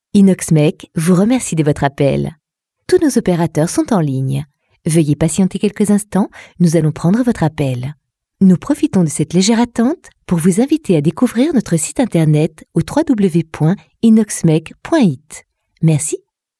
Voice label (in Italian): francese Femminile; messaggi vocali personalizzati